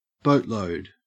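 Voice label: "boatload", English, Australian accent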